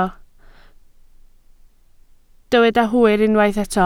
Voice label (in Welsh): "hwyr" Welsh